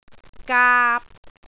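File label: "gaap3", Cantonese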